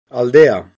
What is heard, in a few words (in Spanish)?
cons d aldea, castilla masculino; spansk; fonetica